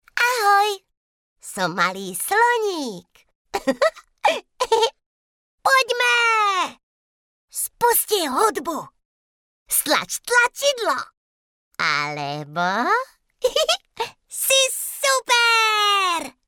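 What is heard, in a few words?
Female Slovak drama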